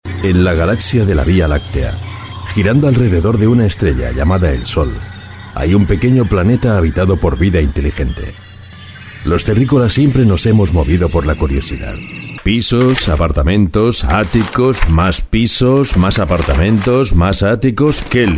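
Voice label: male, Spanish, commercial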